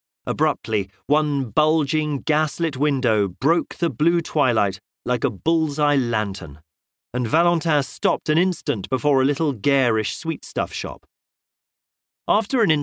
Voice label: male british voiceover audiobooks; innocence father brown